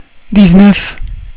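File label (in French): "dix-neuf", French; cat numeration doigts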